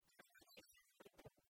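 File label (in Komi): пӧльтӧ чизыр вой тӧв, Komi, female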